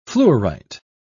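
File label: "fluorite", British English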